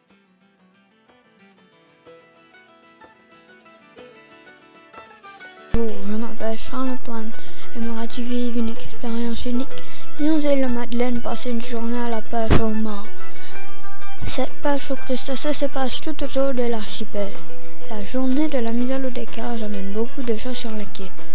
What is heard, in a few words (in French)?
audioguide